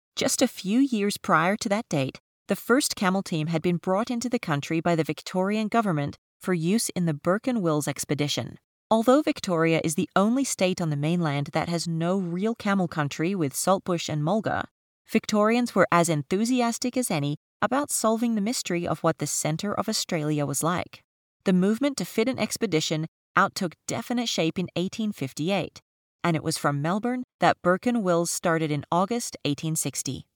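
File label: English American, female, voice over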